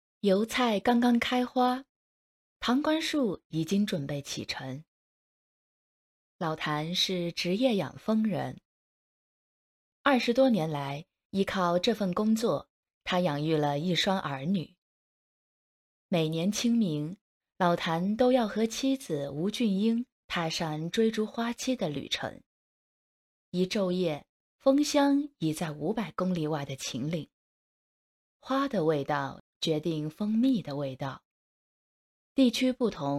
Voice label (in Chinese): 纪录片配音养蜂人